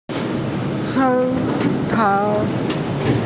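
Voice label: "shou3tao4", Mandarin Chinese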